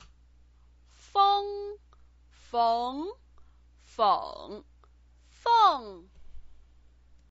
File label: "feng", Chinese pinyin